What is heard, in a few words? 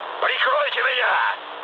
aircraft damaged, mood low, speech ru